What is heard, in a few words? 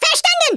YES SIR, Speech German; Worms Armageddon